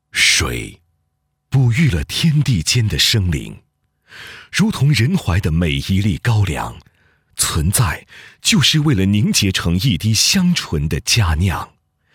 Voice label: 端午制曲 酒庄配音; 咸集酒庄

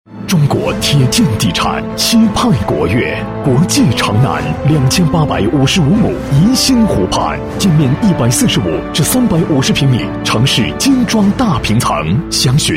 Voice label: 男 地产广告; 西派国樾

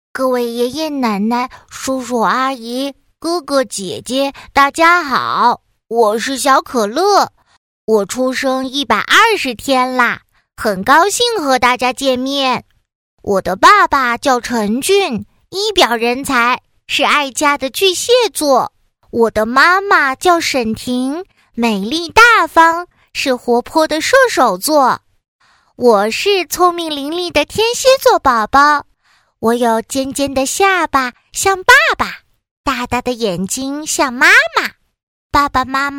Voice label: 女 童声 男童

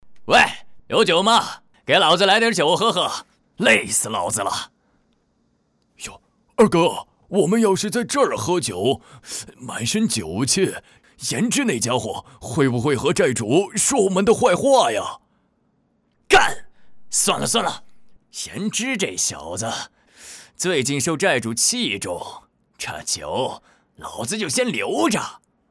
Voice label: 男 古风游戏npc 土匪

